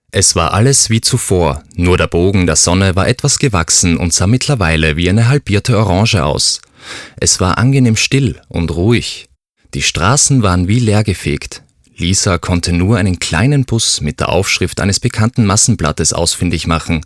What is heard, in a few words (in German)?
leseprobe buch